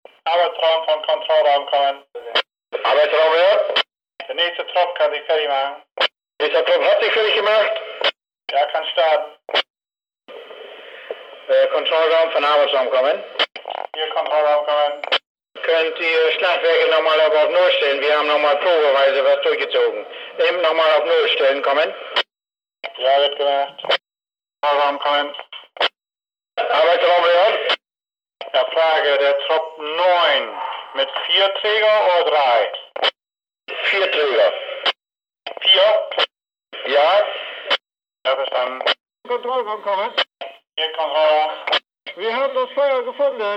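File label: fire department radio message; fire dep radio msg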